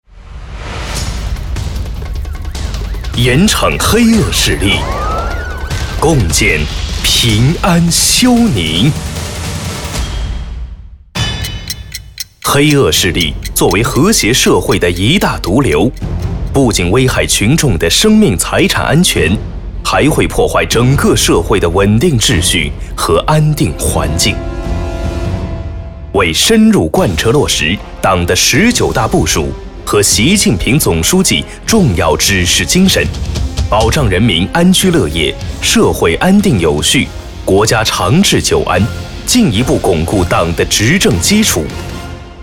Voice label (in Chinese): 专题 市局扫黑浑厚正气